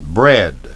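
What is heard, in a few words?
"bread", English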